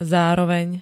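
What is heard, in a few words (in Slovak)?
"zároveň", Slovak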